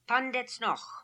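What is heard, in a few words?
"Pandetznokh", pronunciations; Fourth Way; Beelzebub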